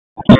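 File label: Sheriff's Office Dispatch; wake p25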